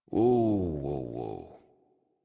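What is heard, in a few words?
horse stop walk adult, English; Warframe; Duviri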